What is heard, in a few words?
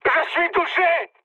aircraft destroyed mood high, speech fr; War Thunder